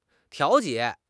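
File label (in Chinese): "调节", Chinese; HSK6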